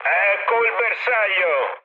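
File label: Italian near waypoint mood high, speech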